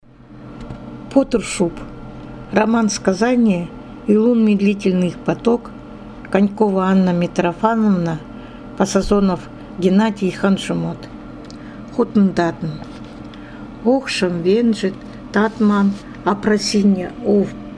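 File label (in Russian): хантыйский язык среднеобской диалект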